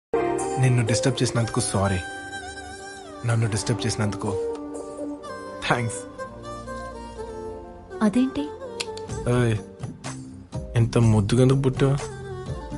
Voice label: Telugu dialogues; tagore

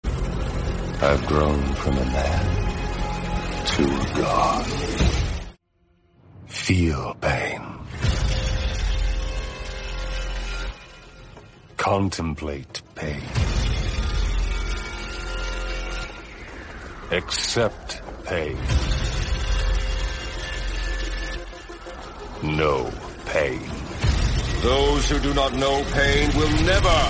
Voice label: english dialogue

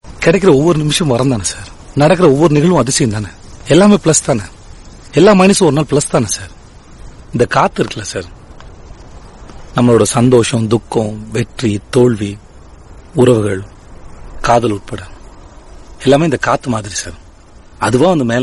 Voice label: sad dialogue tamil; vijay sethupathi

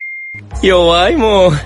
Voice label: male voice

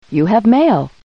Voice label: voice you got mail

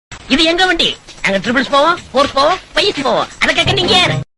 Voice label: tamil dialogue

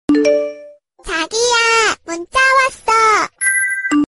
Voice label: chagiya muncha wossho, korean notification

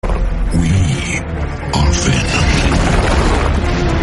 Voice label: english dialogue; venom; we are venom

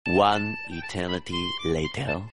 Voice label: 5 minutes later time card english; spongebob

